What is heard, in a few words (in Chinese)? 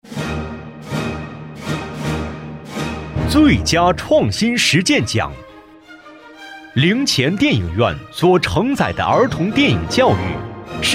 男, 颁奖, 年轻大气